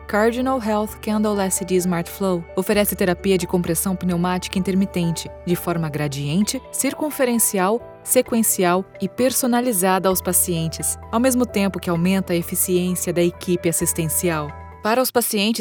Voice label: medical pharma 6 styles female Brazilian Portuguese voice actress